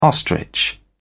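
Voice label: "ostrich", British English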